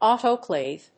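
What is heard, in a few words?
"autoclave", English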